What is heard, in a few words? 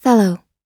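"fellow", English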